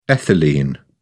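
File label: "ethylene", British English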